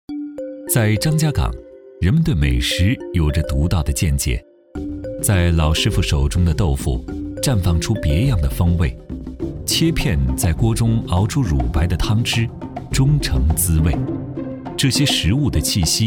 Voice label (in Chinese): 男-舌尖体豆腐; 男178-磁性舒缓; 舌尖感